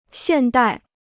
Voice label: "现代", Chinese

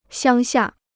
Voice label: "乡下", Chinese

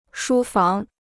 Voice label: "书房", Chinese

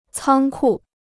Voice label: "仓库", Chinese

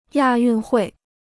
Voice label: "亚运会", Chinese

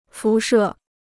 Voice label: "辐射", Chinese

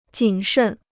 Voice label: "谨慎", Chinese

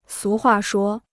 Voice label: "俗话说", Chinese

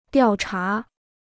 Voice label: "调查", Chinese

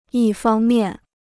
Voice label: "一方面", Chinese

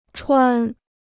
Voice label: "穿", Chinese

